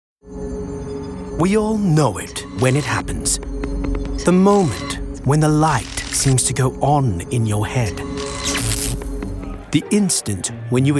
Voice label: narrative